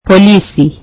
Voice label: swahili police